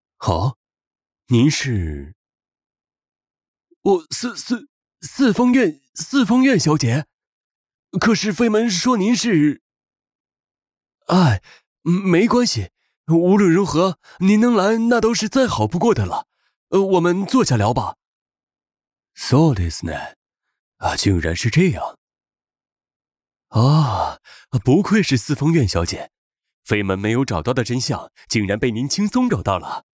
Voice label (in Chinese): 角色日文译制青叔音